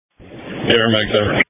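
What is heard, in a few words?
Emergency Medical Services Dispatch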